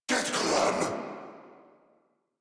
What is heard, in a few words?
Player Spotted Corrupted Grineer Male